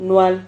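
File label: "nual", Spanish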